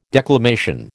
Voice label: "declamation", American

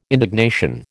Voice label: "indignation", American

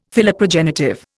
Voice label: "Philoprogenitive", Indian; Dictionary

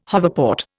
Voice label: "hoverport", Indian

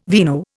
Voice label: "vino", Indian

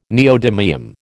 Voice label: "neodymium", American

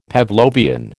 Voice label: "Pavlovian", American

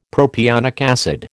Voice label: "propionic acid", American

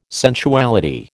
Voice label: "sensuality", American

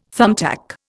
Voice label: "thumbtack", Indian

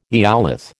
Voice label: "eolith", American

